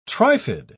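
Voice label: "trifid", British English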